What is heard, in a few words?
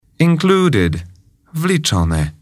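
"included", English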